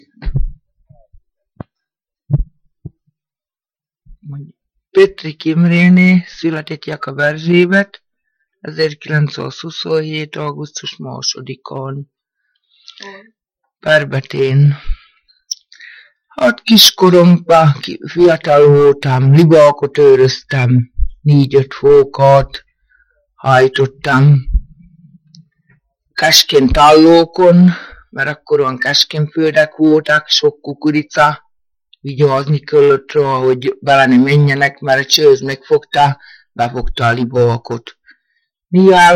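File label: dialect collection; nyelvjaras gyujtesek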